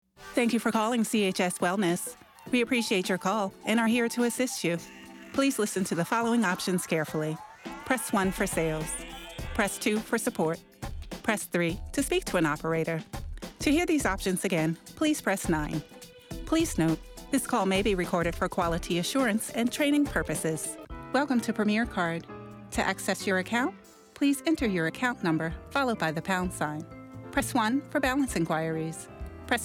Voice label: IVR